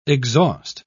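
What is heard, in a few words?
"exhaust", English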